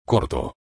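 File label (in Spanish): "corto", Spanish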